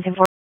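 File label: Police Spec Ops Tac, radio; Raleigh; p25; wake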